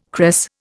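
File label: "cress", Indian English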